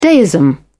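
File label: "deism", British English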